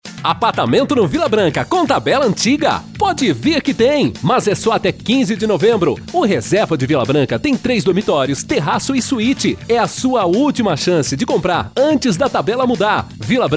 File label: Spot; Villa Branca